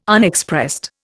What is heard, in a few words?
"Unexpressed", Indian